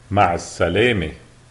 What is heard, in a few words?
goodbye, lebanese, arabic